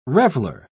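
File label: "reveller", British English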